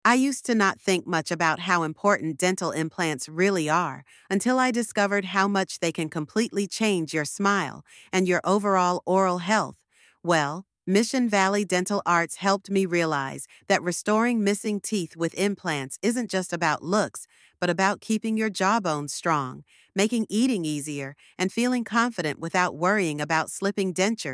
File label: voiceover; Why Choose Dental Implants; Mission Valley Dental Arts; Explain the benefits and procedure of dental implants with a focus on patient comfort; Kaylin AI